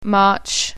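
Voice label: "march", French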